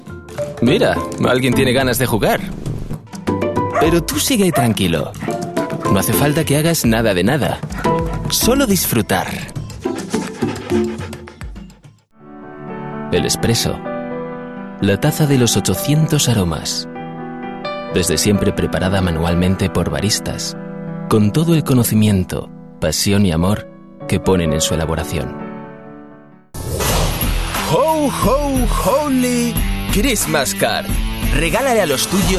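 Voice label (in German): Spanish Mix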